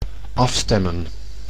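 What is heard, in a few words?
"afstemmen", Dutch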